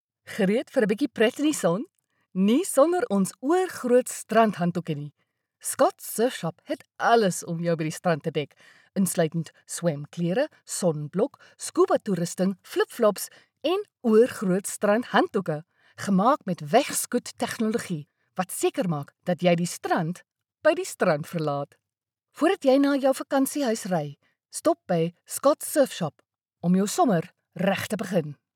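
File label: Afrikaans, female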